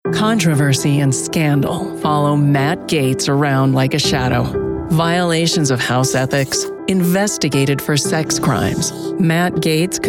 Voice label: women political; conversational wit, tenacity, warmth, and grit.

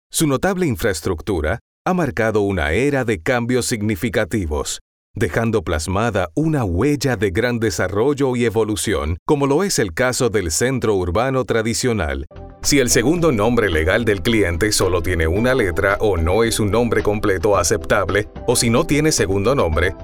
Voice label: spanish narration; (S) Puerto Rican Spanish Speaker. He's warm and sincere, with a deep and masculine voice.

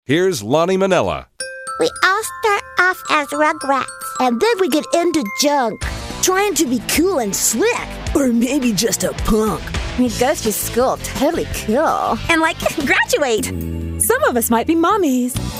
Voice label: women animation; Incredible 4-octave range, articulate and animated, from sultry to Mommy, characters, characters, characters.

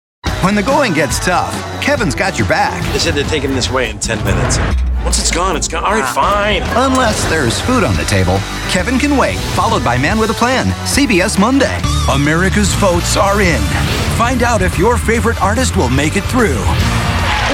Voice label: men promos; Approachable, relatable, and authoritative.

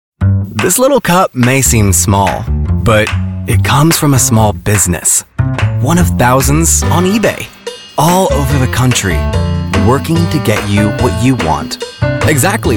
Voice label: men, commercial

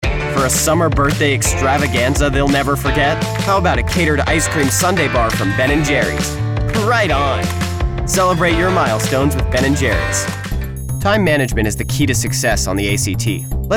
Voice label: kids commercial; Age Range: 20-24. Spunky, quick-witted, and wise beyond his years.

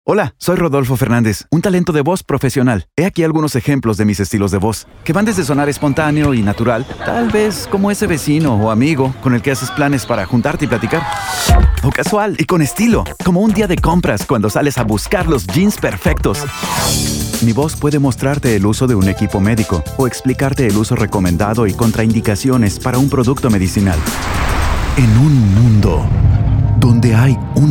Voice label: spanish other range of styles

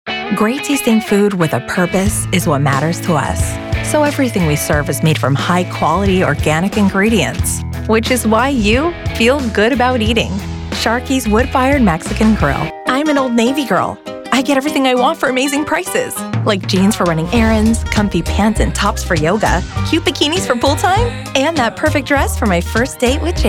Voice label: women commercial

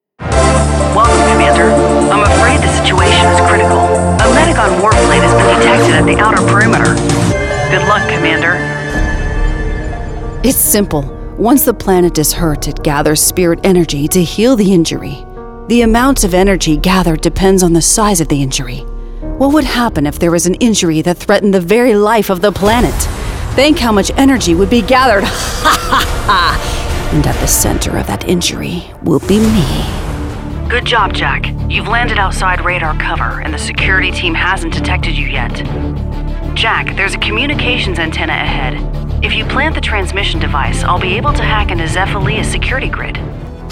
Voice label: women video games; Grounded, warm, and unique.

